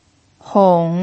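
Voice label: "hong", third tone, Mandarin Chinese pinyin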